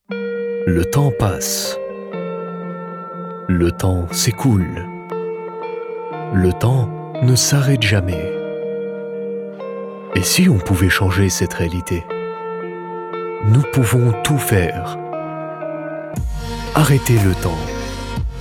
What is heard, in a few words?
french narration